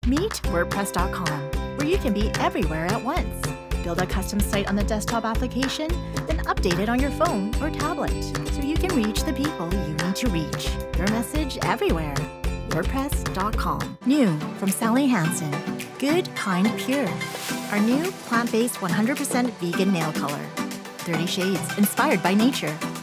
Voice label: Commercial

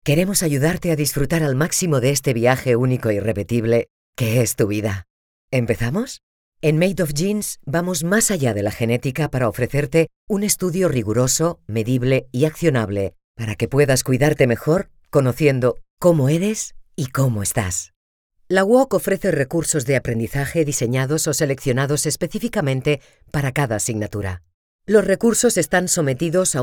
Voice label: CORPO CAST Home Studio

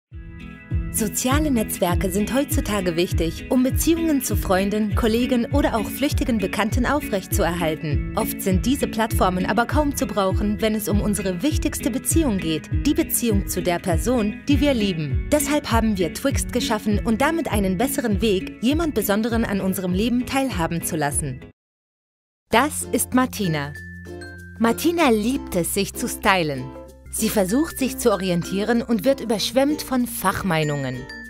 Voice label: DE commercial

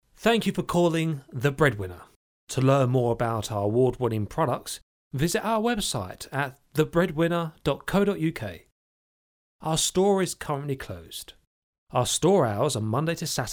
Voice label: On Hold IVR

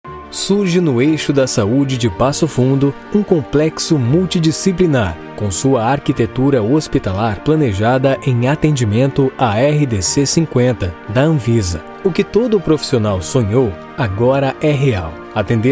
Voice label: clinical

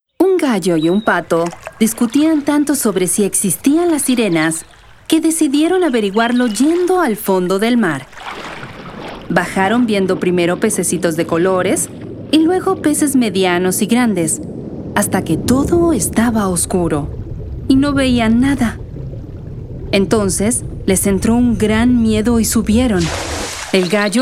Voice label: es-LA AUDIOBOOK

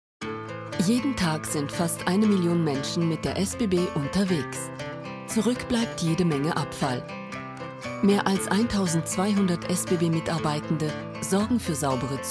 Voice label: Anti Littering Campaign DE; SBB

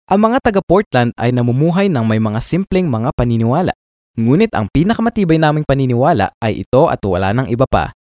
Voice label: Filipino Excited

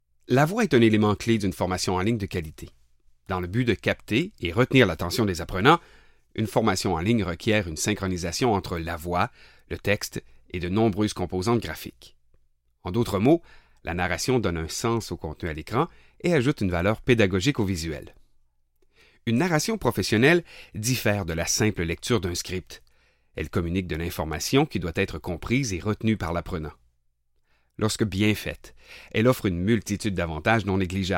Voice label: E-Learn

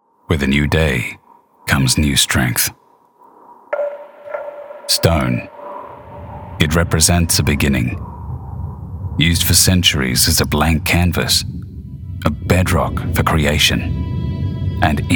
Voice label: VO Commercial Australian

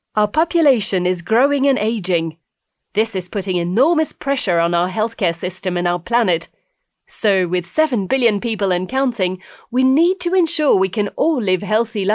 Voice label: English Science and Food

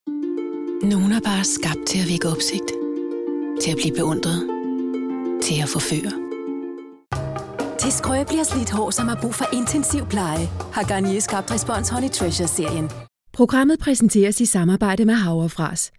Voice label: Danish comm. collage

